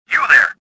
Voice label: suspicious; XBOX; worker